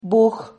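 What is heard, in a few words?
"buj", Spanish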